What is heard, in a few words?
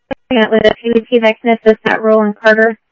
Tempe Police, scanner; SimulcastF-Tempe